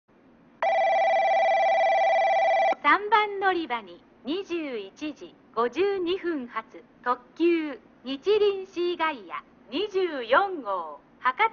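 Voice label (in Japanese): 3番のりば接近放送, 中津駅; にちりんシーガイア24号 博多行き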